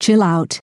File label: speech chillout